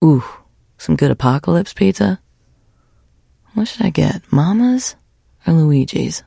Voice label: trader monolog; 商人杰恩（七日杀）

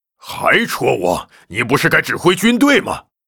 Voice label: Grunt pissed, zh; 魔兽争霸3; 苦工; 兽人; Why don't you lead an army instead

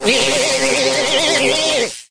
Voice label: Emote Shocked

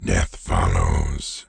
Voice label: deathknight taunt

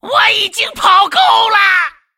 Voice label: attack, zh

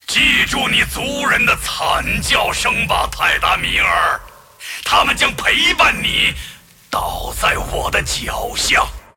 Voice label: move, zh

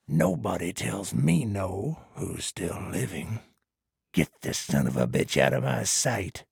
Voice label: trader male dislike quest declined

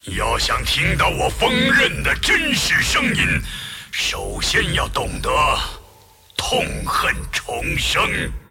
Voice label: move, zh; Aatrox

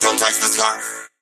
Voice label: mech hurt voice; edgar

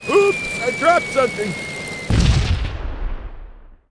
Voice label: pissed; Gyrocopter

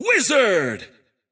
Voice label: Wizard intense

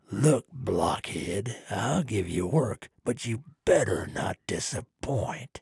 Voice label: trader male dislike quest offer

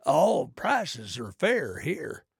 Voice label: trader male like greeting

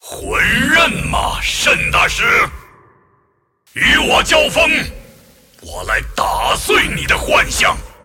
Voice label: move, zh

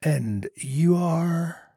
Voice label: trader male neutral greeting

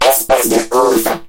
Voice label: hurt vo

